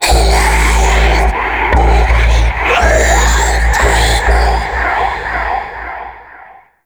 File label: Elder Speak; Entrati; Warframe; Man In The Wall